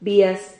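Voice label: "bias", Spanish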